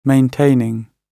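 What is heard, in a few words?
"maintaining", British English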